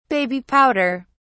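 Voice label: "baby powder", British English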